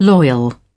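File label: "Loyalty", British English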